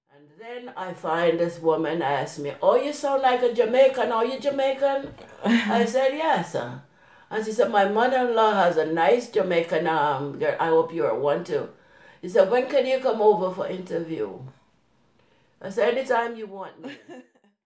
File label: jamaican